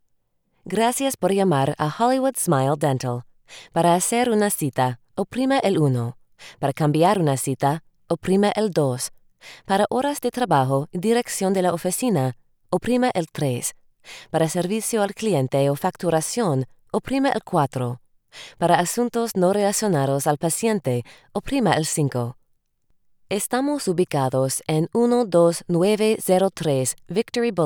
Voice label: Spanish